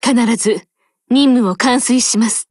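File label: OPERATION BEGIN Japanese, Voice; GF Alarm; FG42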